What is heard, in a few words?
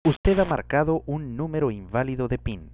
callcard pin invalid, es